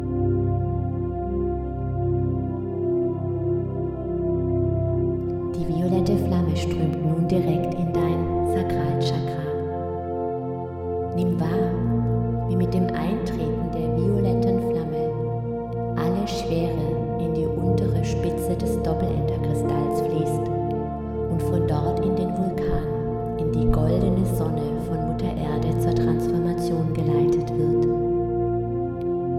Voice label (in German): Meditation mit Musik; Reinige deine Chakren von karmischem Ballast